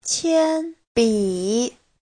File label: "qian1bi3", Chinese